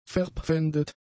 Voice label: "verpfändet", german; adjectives

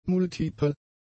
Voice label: "multipel", German; adjectives

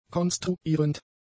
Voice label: "konstruierend", German; adjectives

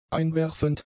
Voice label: "einwerfend", german; adjectives

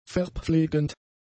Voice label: "verpflegend", german; adjectives

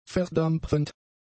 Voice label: "verdampfend", German; adjectives

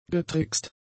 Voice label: "getrickst", german; adjectives

